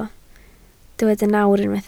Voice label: "nawr", Welsh

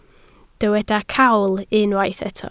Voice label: "cawl", Welsh

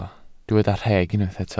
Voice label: "rheg", Welsh

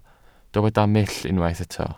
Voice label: "mull", Welsh

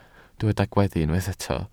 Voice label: "gweddu", Welsh

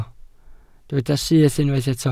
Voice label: "syth", Welsh

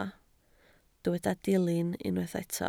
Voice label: "dilyn", Welsh

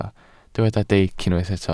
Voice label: "deic", welsh

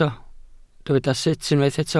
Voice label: "sut", Welsh

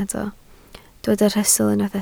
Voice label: "rhestl", Welsh

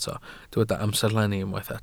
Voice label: "amserlenni", Welsh

